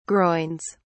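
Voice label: "groins", British English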